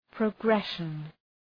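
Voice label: "progression", English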